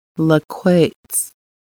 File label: traveling downstream, Lushootseed